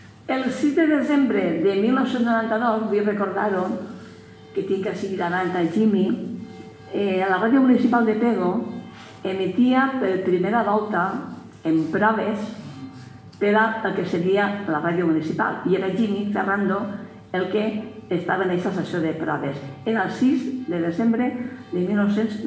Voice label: radio